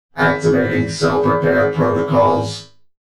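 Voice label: activating self-repair protocols, Video Game Announcer; DROID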